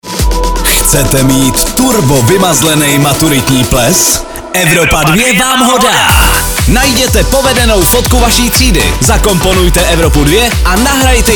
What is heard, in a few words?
promo; evropa2; maturitak